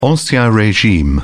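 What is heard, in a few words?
"ancien regime", English, British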